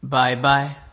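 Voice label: "buybuy", Nigerian Pidgin, male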